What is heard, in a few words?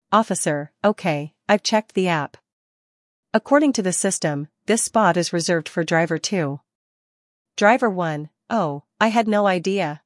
Driver conversation; celpip practice test; listening practice test